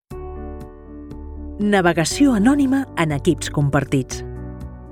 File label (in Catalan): elearning, locutora